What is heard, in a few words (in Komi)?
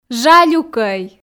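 жалюкӧй, Komi, female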